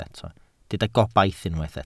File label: "gobaith", Welsh